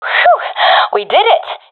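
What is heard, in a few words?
round won, professional female; vo; agents; Source Model Packs; Counter Strike Model Pack; CS2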